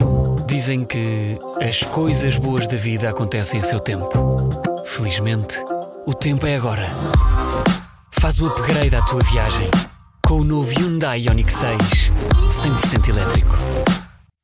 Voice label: COMMERCIALS